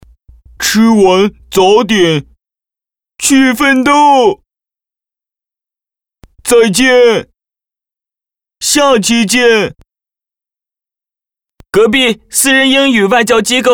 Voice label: 角色 呆萌傻傻的

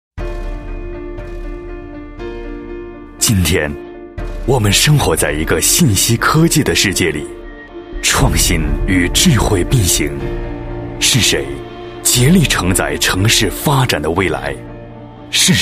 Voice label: 男 浑厚男声 专题; 国语男声配音; 中国北京中建; 男95浑厚男声

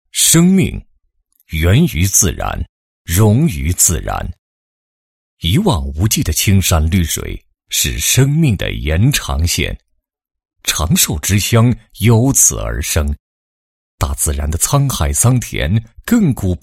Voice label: 男 房地产专题, 宣传片; 兴达御景城

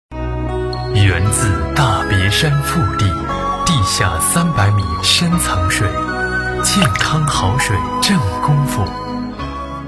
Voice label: 男 品牌广告, 国语男声配音, 青春时尚; 10秒广告; 正功夫

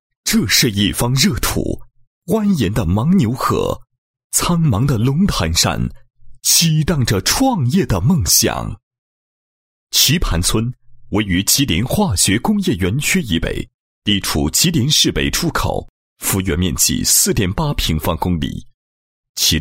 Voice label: 男 城市地区宣传 宣传片; 吉林市棋盘宣传片

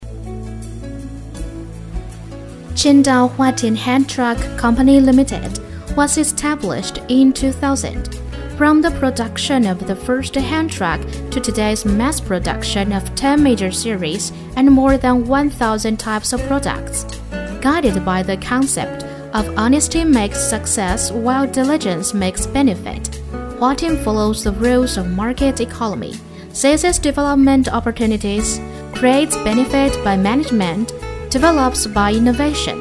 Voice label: 英女 企业介绍英语

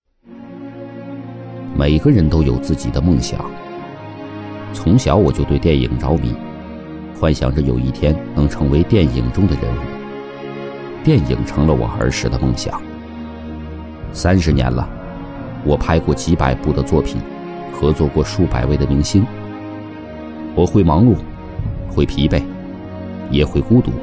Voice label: 男声 自白; 电影之梦; 国语男声配音; 记录风格

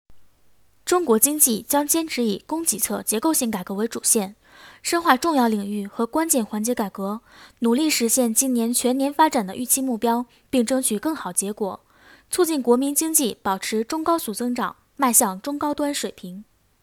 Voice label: 女,新闻

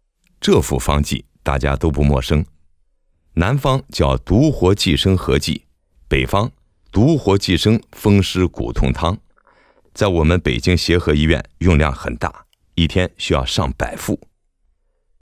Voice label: 男 课件 中医

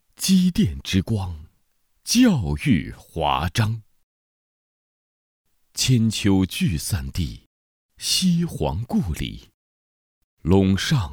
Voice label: 学校宣传, 大气; 甘肃机电职业技术学院

